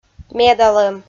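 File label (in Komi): "медалӧм", Komi-Zyrian, female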